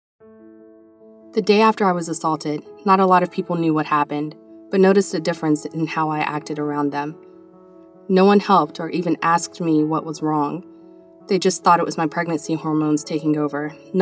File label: Year Old Female